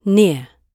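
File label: "near", British English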